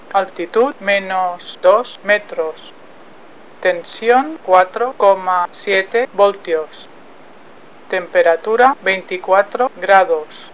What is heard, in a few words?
msg es f